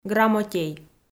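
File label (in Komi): "грамотей", Komi, female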